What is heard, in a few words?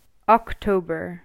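"October", English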